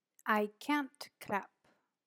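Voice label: "I cant clap", English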